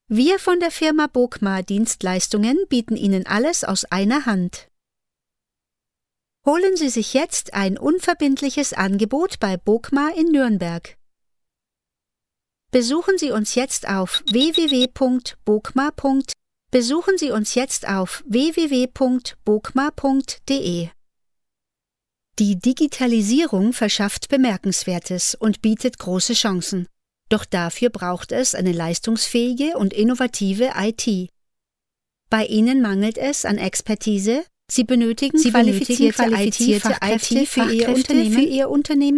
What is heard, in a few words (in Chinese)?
德语 女声; 外籍